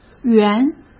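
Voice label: "原", Mandarin Chinese; chinese flashcards; hsk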